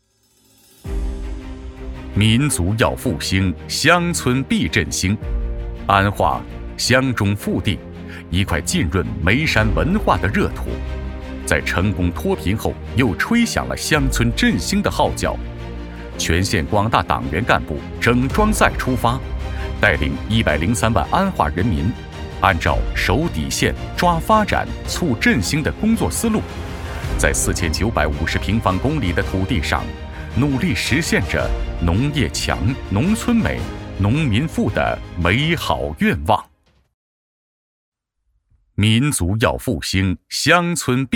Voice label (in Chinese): 宣传片 乡村振兴气象新, 男